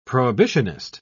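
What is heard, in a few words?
"prohibitionist", British English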